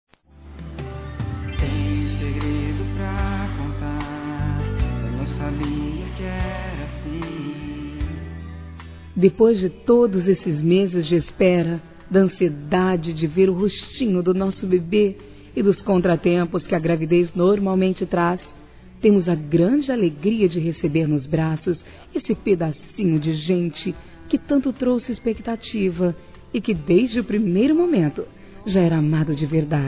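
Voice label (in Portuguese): Maternidade romantico, feminino; Telemensagens; Românticas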